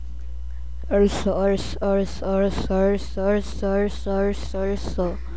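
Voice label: "also", spoken word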